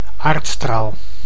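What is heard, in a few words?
"aardstraal", Dutch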